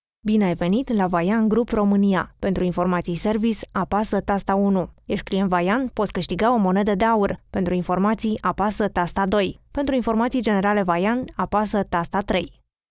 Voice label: Romence Kadin, romence seslendirme

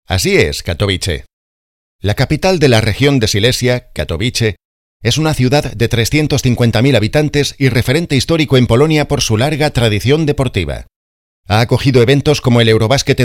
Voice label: Ispanyolca Erkek

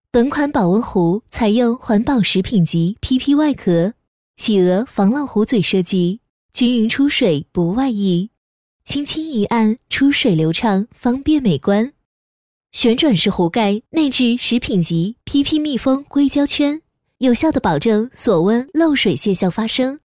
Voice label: Cince Kadin Mandarin Cin Simplified; pekin seslendirme

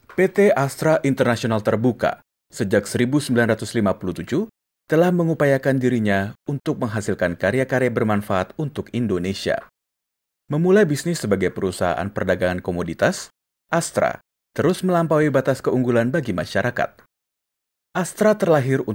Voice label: Endonezce Erkek; seslendirme

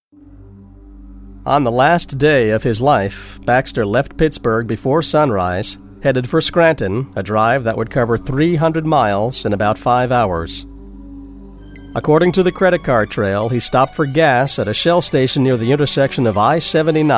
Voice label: Amerikan Ingilizcesi Erkek; seslendirme

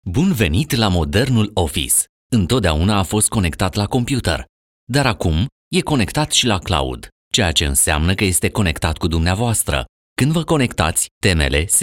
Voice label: Romence Erkek, seslendirme; erkek sesler

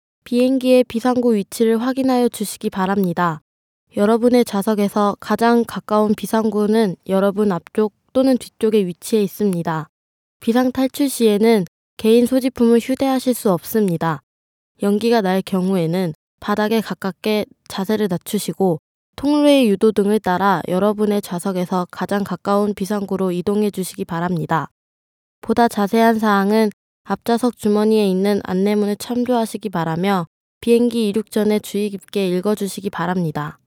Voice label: Korece Kadin, seslendirme; kadin sesler

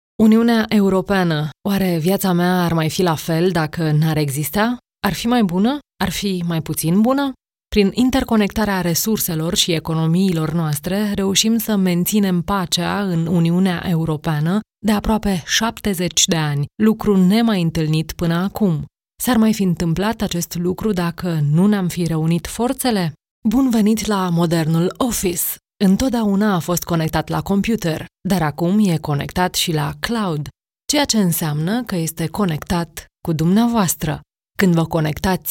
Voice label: Romence Kadin, seslendirme

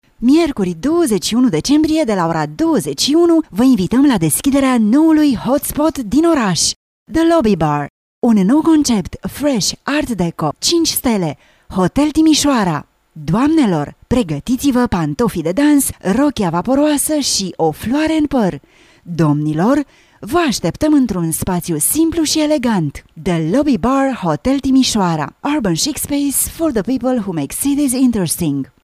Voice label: Romence Kadin, romence seslendirme; kadin sesler

